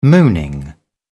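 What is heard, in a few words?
"mooning", British English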